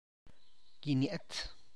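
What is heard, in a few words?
chile de agua, Tlacochahuaya Zapotec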